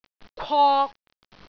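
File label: "kwok3", Cantonese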